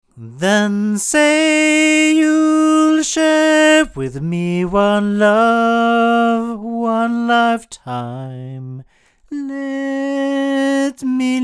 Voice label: Oktava MC012 cardioid male

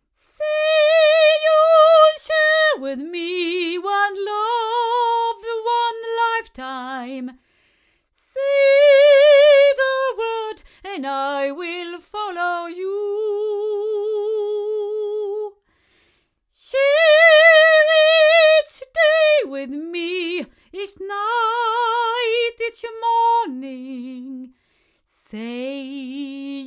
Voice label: Oktava ml-53 female

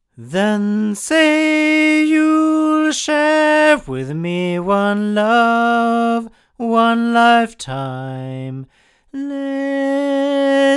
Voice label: Oktava MK220 cardioid male

